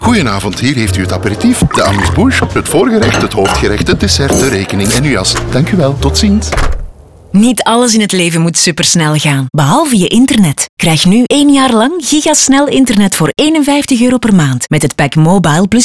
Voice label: Nl radio Fast Food

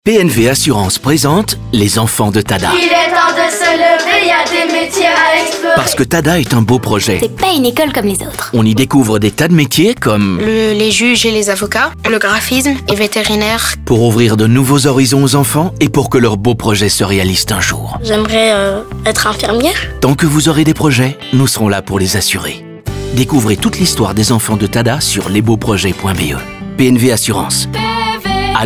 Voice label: radio

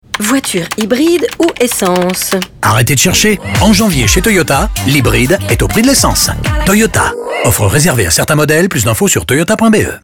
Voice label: Radio Promo, FR; Toyota; Hybride vs Essence Jeu

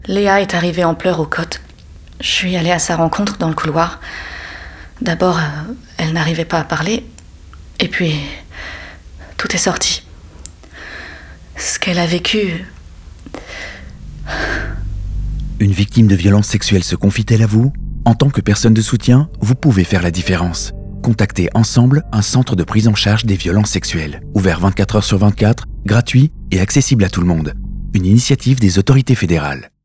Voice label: radiospot, FR; Zorgcentra

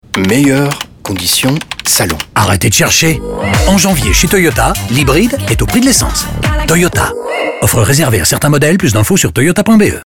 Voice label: Radio Promo; Toyota; Condition Jeu

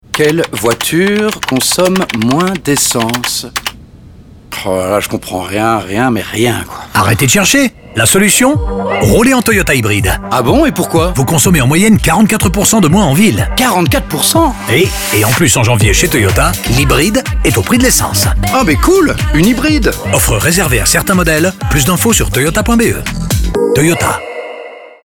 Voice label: Radio, FR; Toyota; Crescendo

